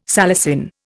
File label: "salicin", Indian